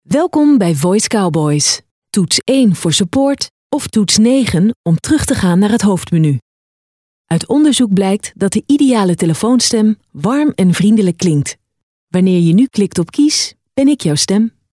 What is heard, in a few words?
Voicemail